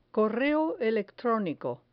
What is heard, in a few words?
"correo electronico", Spanish